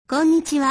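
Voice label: "konnichiwa", Japanese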